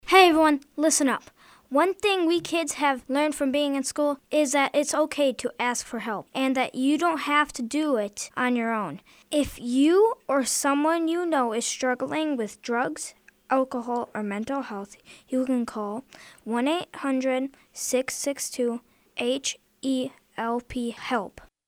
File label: Announcement